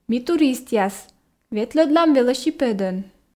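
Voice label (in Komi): ми туристъяс ветлӧдлам велосипедӧн, Komi, female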